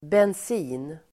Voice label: "bensin", Swedish